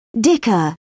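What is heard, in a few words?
"dicker", British English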